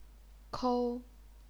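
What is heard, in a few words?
"kou", Chinese, first tone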